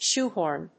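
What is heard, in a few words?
"shoehorn", English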